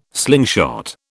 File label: "slingshot", British